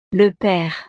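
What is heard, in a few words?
"le pere", French; familia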